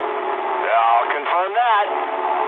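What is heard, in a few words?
English player killed enemy mood high; speech; War Thunder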